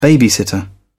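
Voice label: "babysitter", British English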